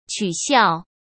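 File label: "取笑", Mandarin Chinese; hsk; chinese-flashcards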